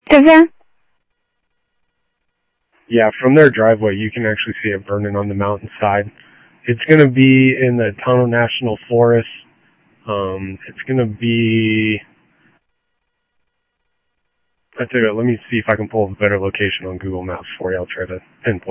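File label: Sheriff Lake Patrol, scanner audio; MCSO Lakes 1 SheriffLakePatro; ThompsonPeakScot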